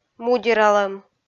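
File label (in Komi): "мудералӧм", Komi, female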